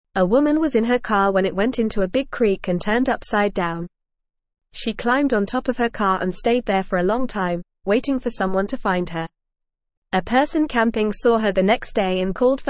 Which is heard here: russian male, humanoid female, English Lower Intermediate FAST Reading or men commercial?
English Lower Intermediate FAST Reading